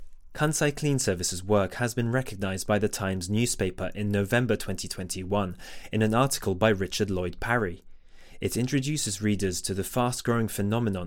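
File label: Japanese, English accent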